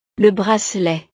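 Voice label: "le bracelet", French; ropa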